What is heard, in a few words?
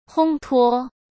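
"烘托", Mandarin Chinese; chinese flashcards; hsk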